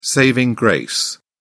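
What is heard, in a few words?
"saving grace", English, British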